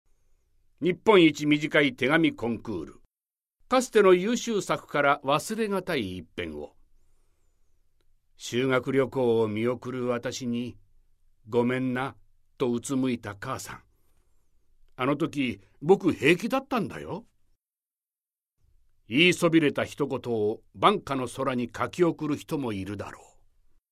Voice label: narration